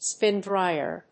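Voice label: "spin dryer", English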